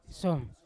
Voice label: "som" this, Yawa